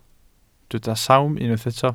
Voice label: "sawm", welsh